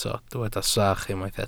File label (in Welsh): "sach", Welsh